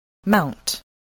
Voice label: "mount", voice, spelling